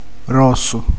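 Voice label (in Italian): "grosso", Italian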